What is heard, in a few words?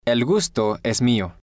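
"el gusto es mio", Spanish, male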